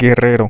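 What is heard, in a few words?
Es-mx, Mexican Spanish; Guerrero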